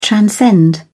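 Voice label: "transcend", British English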